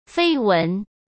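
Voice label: "绯闻", Mandarin Chinese; hsk; chinese-flashcards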